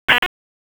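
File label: Dispatch 1, P25; WSO DISP 1; wake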